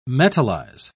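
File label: "metallize", English, British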